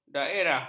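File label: "daerah", Malay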